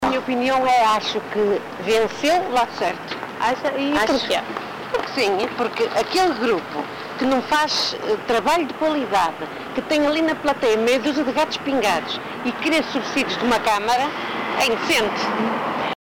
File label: vox pop; rivoli